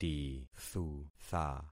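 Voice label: "tithutha", concatenated syllables; Affixing experiment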